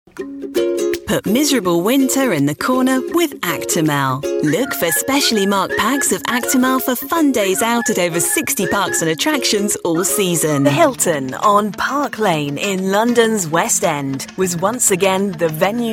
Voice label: Voiceovers UK Showreel